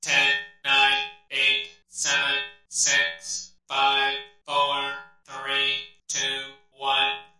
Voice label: "1 2 3" robot; numbers and letters